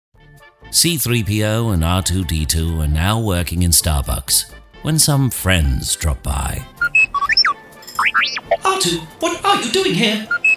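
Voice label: UK English Characters; GoLocalise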